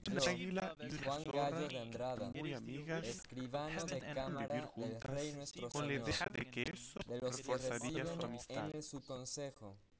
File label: male inst sim; SASSEC07